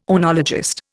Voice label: "oenologist", Indian